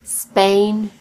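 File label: "spain", British English; gcompris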